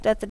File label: English do you have to short; spontaneous speech